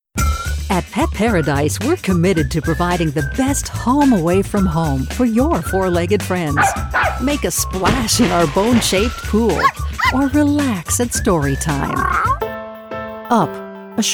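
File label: female, US English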